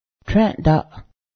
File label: fly, Cayuga; trę́ˀdaˀ; fly (n.)